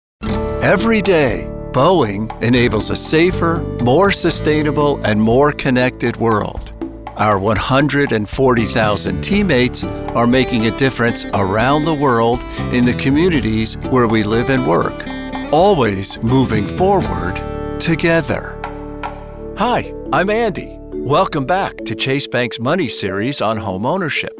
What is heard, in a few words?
narration